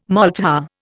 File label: "maltha", Indian